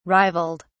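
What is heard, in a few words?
"rivaled", British English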